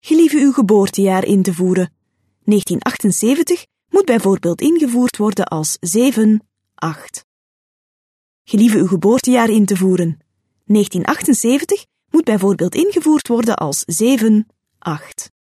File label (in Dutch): Flemish IVR